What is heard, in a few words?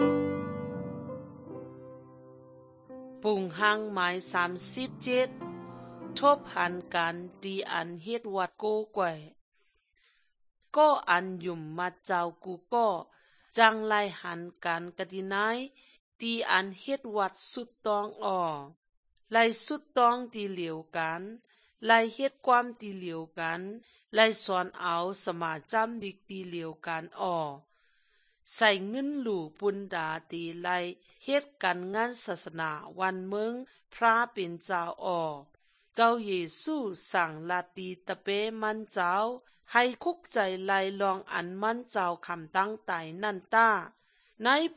good news shan eastern, drama; shan thailand bible society nt drama